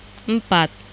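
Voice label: "empat" Indonesian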